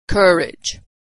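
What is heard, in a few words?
"courage", American English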